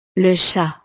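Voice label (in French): "le chat", French; el gato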